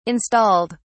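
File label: "installed", British English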